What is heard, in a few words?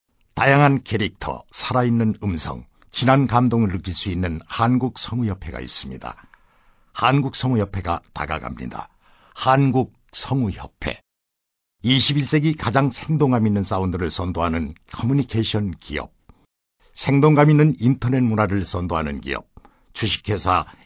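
Korean male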